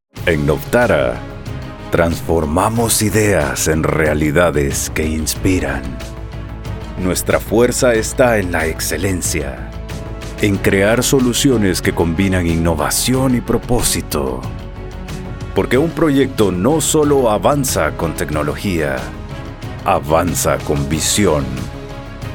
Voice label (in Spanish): Corporativo; Novtara